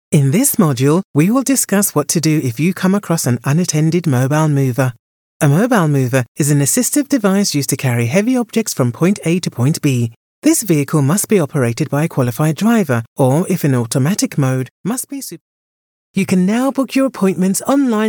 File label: eLearning